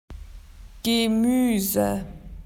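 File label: "vegetables", Australian English; PictureDictionary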